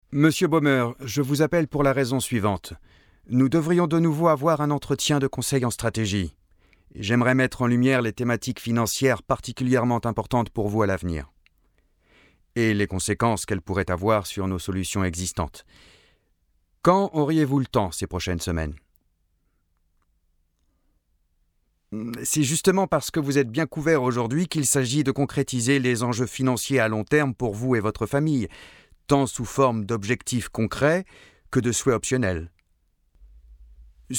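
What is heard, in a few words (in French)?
French, male, character; voice demos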